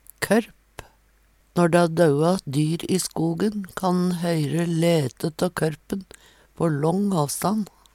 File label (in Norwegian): "kørp", Numedalsmål